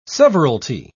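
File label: "severalty", British English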